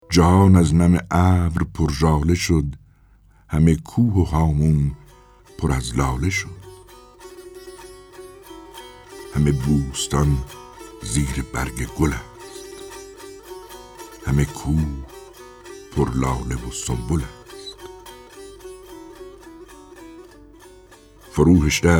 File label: Narration